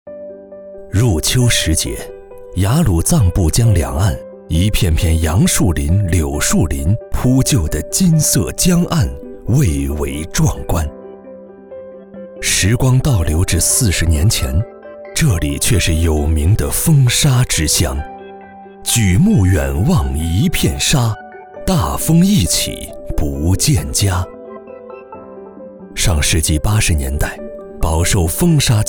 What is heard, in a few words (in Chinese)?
男 专题片 温暖